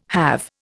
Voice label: "have", Indian

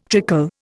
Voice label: "trickle", Indian

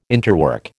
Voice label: "interwork", American

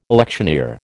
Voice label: "electioneer", American

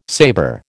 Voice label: "saber", American

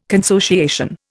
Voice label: "consociation", Indian

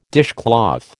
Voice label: "dishcloth", American

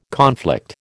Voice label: "conflict", American

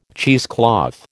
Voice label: "cheesecloth", American

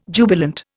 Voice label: "jubilant", Indian

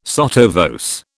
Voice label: "sotto voce", British

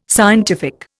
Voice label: "scientific", Indian

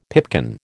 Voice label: "pipkin", American

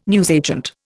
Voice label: "newsagent", Indian

